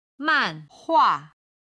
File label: "manhua", Chinese